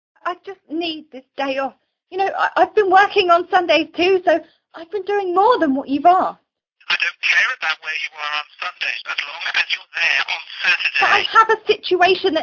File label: phone conversation